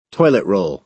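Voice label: "toilet roll", American English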